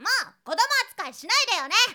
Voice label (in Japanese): shonen moukodomoatukaisinaideyone